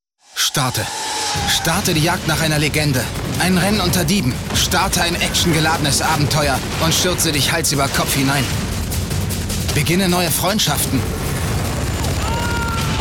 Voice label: Dynamisch

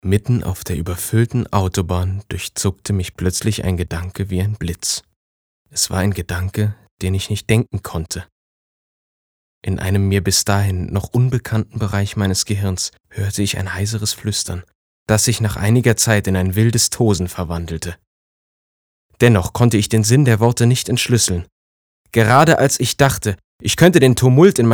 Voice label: Nachricht Geheimnisvoll

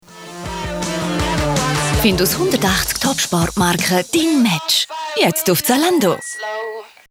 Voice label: Freundlich

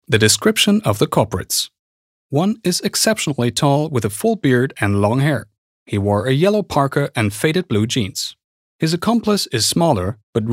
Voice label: Eng US Kommentar Neutral

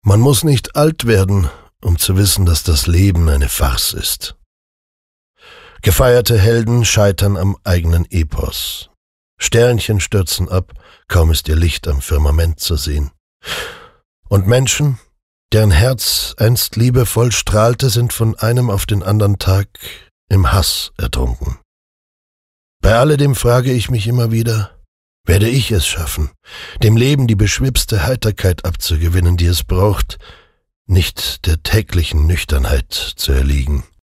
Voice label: Weltschmerz Sanft

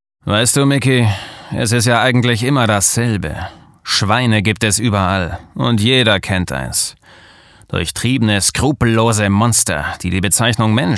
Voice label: Schauspiel, Cool

